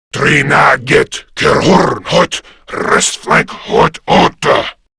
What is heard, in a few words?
Attack Grineer Male; Warframe; Battle Barks